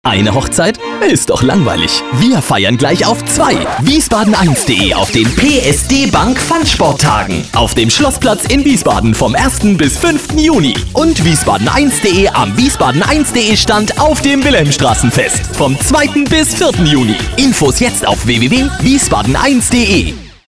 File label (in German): Spot